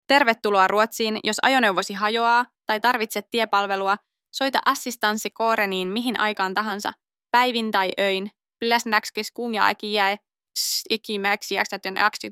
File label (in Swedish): Ak finsk rost